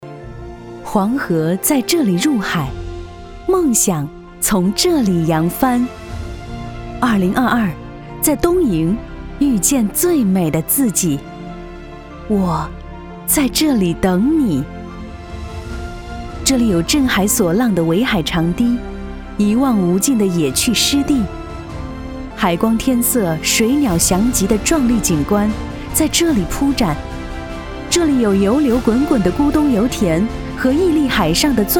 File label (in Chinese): 女 专题宣传; 东营